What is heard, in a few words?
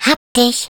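Turret active, German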